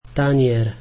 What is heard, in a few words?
plate, slovak